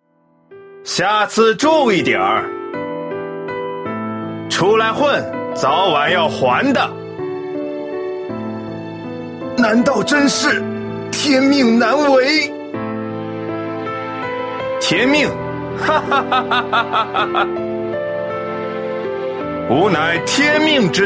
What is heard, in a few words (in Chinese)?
qingnian